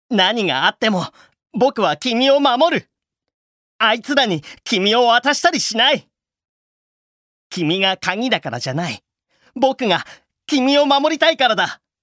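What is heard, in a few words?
seikaku male warumono choiwaru; nenrei; m syonen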